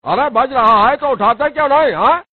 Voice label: hindi funny